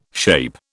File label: "SHAPE", British